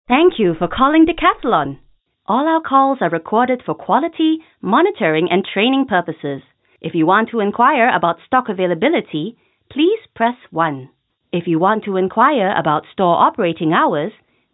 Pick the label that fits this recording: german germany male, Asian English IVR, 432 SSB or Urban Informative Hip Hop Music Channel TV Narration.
Asian English IVR